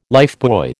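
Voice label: "lifebuoy", American English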